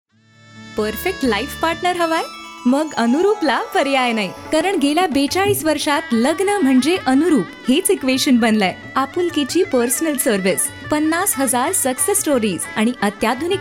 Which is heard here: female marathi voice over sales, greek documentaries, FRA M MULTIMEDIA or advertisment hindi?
female marathi voice over sales